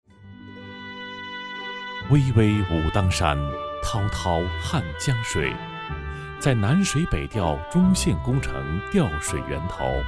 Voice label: 男 亲和; 湖北医药学院

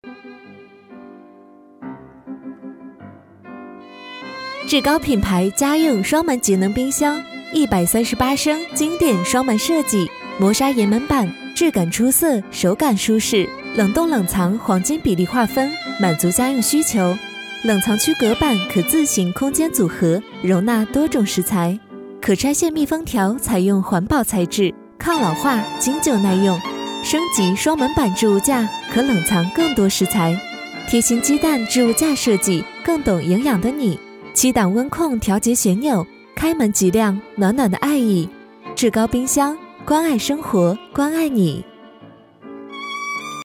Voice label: 女55 【专题】 品牌; 志高品牌

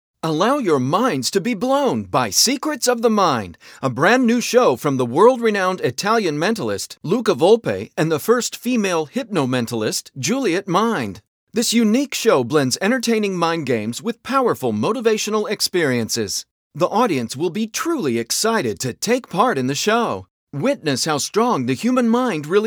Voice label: 外籍男 专题类样音

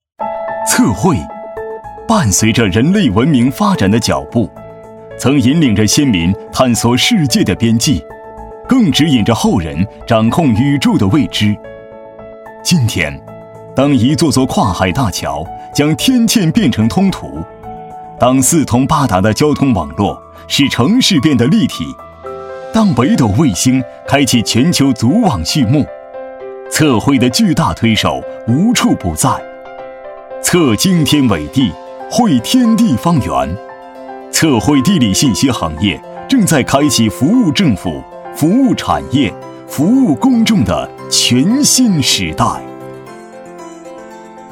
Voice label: 男 河北测绘; 大气

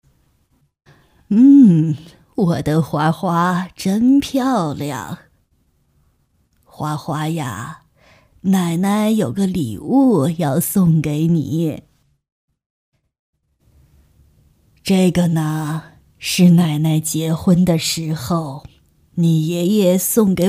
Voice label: 女 奶奶对白

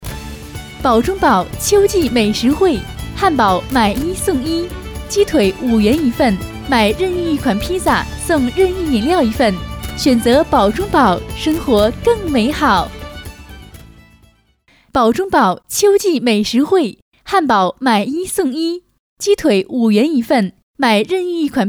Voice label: 女 堡中堡餐饮（年轻）

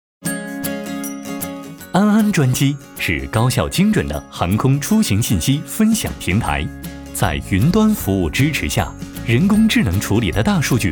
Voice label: 男 专机平台（轻松愉快）; 安安专机平台; 温情旁白

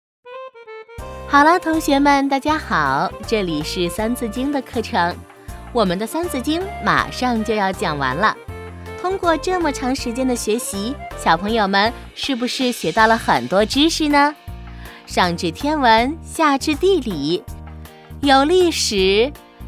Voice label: 女 三字经

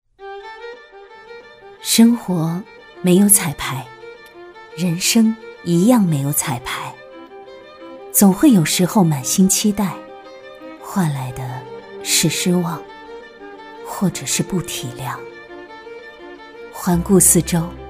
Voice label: 女 生活没有彩排

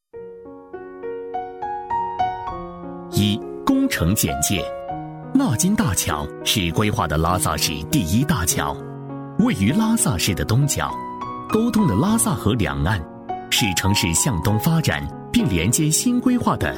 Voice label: 男63 工程简介--拉萨大桥

